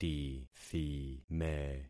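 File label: "tithime", concatenated syllables; Affixing experiment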